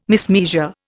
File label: "mismeasure", Indian